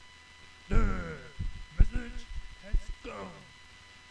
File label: Harsh Voice